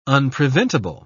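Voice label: "unpreventable", British English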